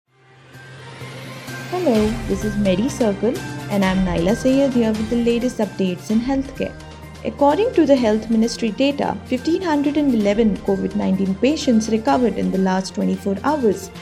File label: english news